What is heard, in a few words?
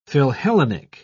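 "philhellenic", British English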